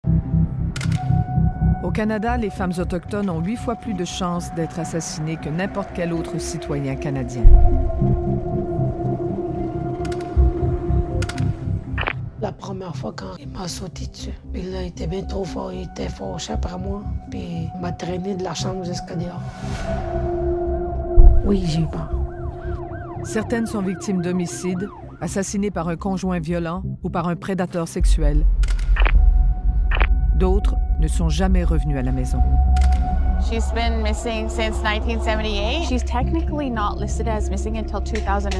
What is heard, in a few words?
narration